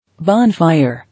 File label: "Bonfire", English